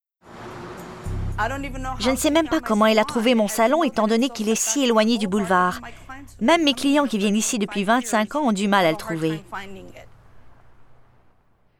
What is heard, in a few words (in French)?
surimpression vocale